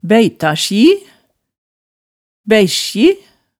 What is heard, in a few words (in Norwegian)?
"bæita-sji, bæiskji", hemsedalsmaalet tale